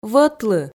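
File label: "вӧтлы", Komi, female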